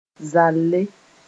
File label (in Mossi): "zalle", moore